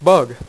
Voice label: "bug", dealer's speech; PowerPOKER Demo

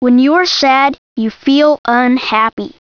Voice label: sad

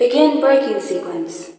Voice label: BRAKE, COMPUTER, VOICES; Messiah

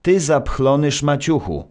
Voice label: narr; Szkola Koziolka; CyberMycha 2003 October

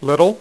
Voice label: "little", Dealer's Speech; PowerPOKER Demo

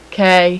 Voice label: "k" female, alphabet; Web Clip Empire 150,000

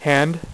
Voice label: "hand", dealer's speech; PowerPOKER Demo

